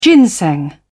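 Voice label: "ginseng", English, British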